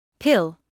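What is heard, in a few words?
"pill", British English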